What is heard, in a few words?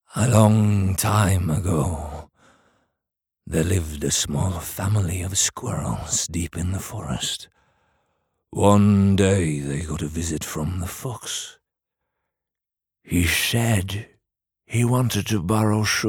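no characters english, Norwegian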